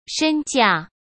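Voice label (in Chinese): "身价", Mandarin Chinese; chinese flashcards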